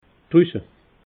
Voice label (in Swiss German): "drusse", alsace